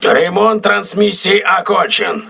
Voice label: voice message transmission repaired, crew ships russian